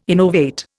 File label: "innovate", Indian